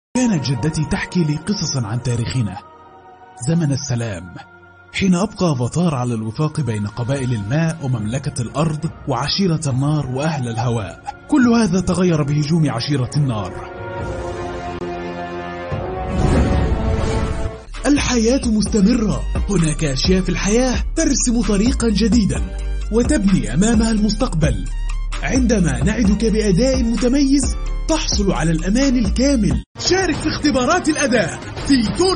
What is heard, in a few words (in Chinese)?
阿拉伯语男 外语 小语种